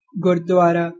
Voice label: "gurdwara", Punjabi

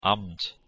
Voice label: "amt", Proto Germanic

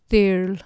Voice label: "thyrl", Anglo-Saxon

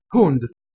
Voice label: "hund", Anglo Saxon

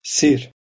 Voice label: "sir" Bosnian

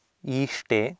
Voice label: "iishte", Sanskrit

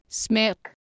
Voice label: "smearc", Anglo Saxon

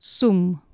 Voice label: "sum", Anglo Saxon

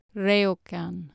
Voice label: "reocan", Anglo Saxon

